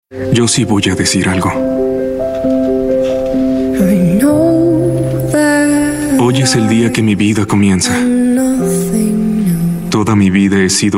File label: spanish voice over male narrator